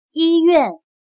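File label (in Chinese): "医院" Mandarin Chinese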